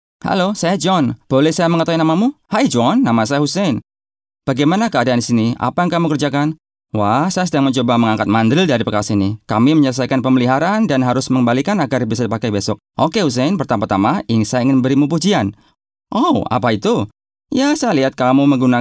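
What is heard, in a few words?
Indonesian, male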